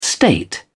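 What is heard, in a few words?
"state", British English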